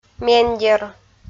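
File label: "мендер" Komi, female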